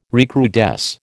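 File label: "recrudesce", American English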